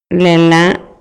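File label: Maya, male; PALABRAS CON DISTINTAS FUNCIONES; INALI; MayaYuc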